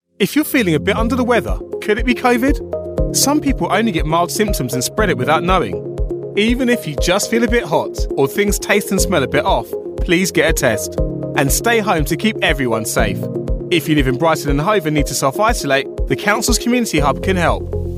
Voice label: london natural; covid-19